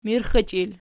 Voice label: "mirxichil", Archi

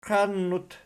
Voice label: "qiannut", Archi

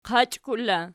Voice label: "qachkul" in, Archi

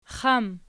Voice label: xam nom, archi

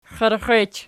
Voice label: xierxech nom, archi

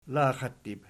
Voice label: "laxattib", Archi

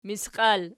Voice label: "misqial", Archi

